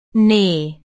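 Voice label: "knee", English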